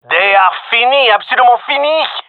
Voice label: round winning, gendermerie male

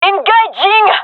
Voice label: attacking, gendarmerie fem

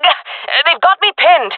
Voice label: pinned, jungle fem; player vo jungle fem; Counter Strike Model Pack; CS:GO

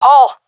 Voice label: loc hole, seal fem; vo; Counter Strike Model Pack; CS:GO

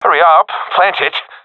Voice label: friend plant bomb, professional epic; vo; Counter Strike Model Pack; CS:GO

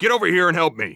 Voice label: help, heavy; vo; Counter Strike Model Pack; CS:GO; phoenix coop

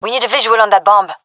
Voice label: query bomb, fem; vo; gendarmerie fem epic

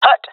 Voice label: loc hut, jungle male; player vo; Counter Strike Model Pack; CS:GO

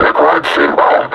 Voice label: announce enemy zombie, combine suppressor; Half Life Alyx

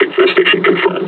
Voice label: announce enemy zombie

